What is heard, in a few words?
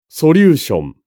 japanese pronunciation solution